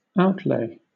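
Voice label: "outlay", British English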